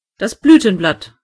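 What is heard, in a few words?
petal, German voices words; gcompris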